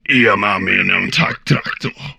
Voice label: humanoid portrait male; Stellaris; humanoids

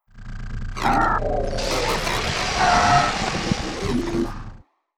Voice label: radio chatter; Stellaris

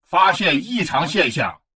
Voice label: advisor notification discovery detected, chinese; Stellaris

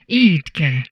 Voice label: humanoid portrait female; Stellaris; humanoids

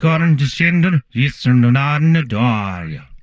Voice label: humanoid male; Stellaris; humanoids

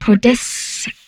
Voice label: humanoid female; Stellaris

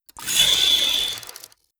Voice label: robot reptilian greetings; Stellaris; synthetic dawn

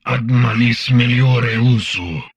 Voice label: humanoid male; Stellaris

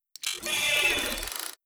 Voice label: robot avian greetings; Stellaris; synthetic dawn

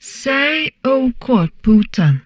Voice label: humanoid portrait female; Stellaris; humanoids

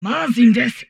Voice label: humanoid portrait female; Stellaris; humanoids

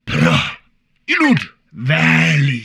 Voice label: humanoid portrait male; Stellaris; humanoids

